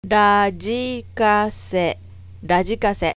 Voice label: radio cassette, katakana